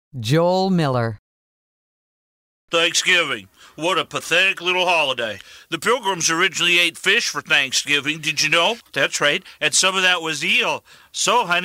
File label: commercial; Innovative LA